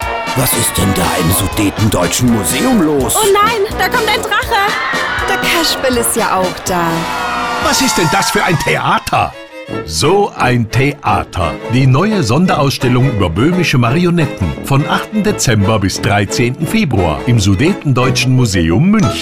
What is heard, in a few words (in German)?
Radiospot; Marionetten